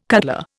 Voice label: "cutler", Indian

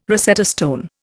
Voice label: "Rosetta stone", Indian

